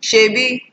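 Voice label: "shebi", Nigerian Pidgin, female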